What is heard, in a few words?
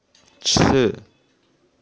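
"ci4" Chinese, female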